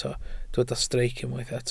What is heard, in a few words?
"streic", welsh